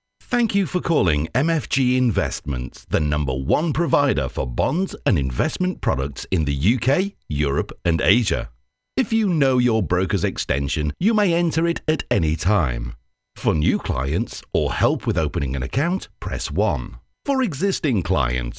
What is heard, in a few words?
Phone System Voice